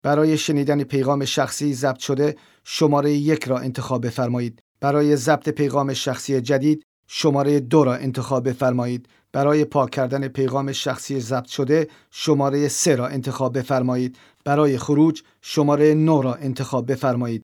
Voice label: Persian; קריינות

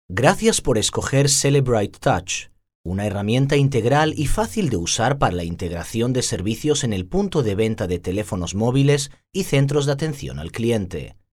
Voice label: Spain; קריינות

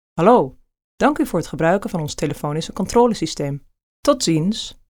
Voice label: Dutch; קריינות

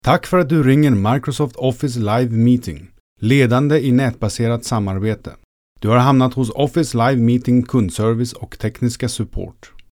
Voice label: Swedish; קריינות

